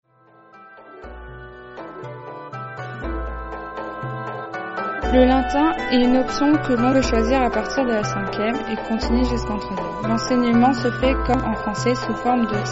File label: latin